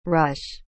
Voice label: "rush", English